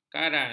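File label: "karan", Malay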